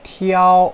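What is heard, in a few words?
"tiao1", Chinese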